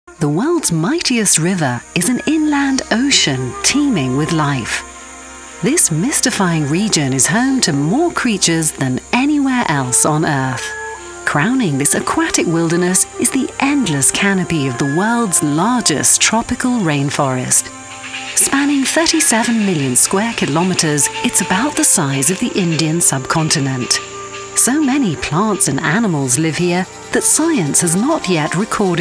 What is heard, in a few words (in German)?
Eng UK Dynamisch